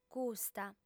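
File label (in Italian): "custa", lingua sarda, female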